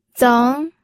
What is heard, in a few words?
"zeng2", pinyin